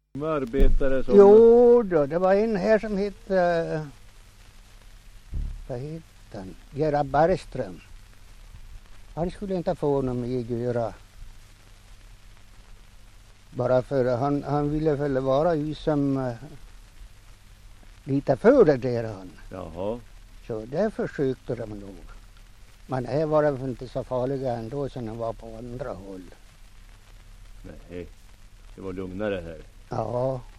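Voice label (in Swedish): Hed Västmanland, svenska sagor dialekter; Folkminnen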